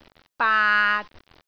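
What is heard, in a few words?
"baat6", Cantonese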